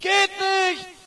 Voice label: ger negative; player; Source Engine; dod